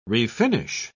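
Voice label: "refinish", English, uk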